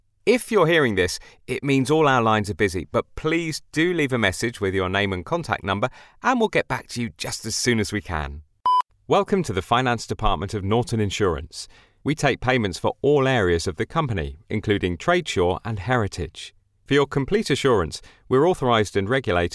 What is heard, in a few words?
english british ivr